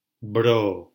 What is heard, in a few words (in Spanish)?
"bro", silabas